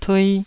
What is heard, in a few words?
"tui2", taiwanese